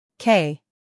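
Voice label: "k", American English; sounds-of-american-english; everyone-can-use-english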